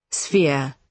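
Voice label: "sphere", British English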